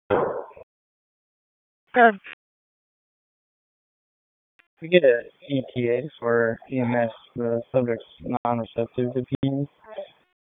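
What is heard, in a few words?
Police Northeast District Dispatch; Raleigh; wake p25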